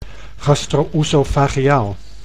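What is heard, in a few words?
"gastro-oesofageaal", Dutch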